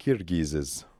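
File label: "Kirghizes", pronunciations; Fourth Way; Beelzebub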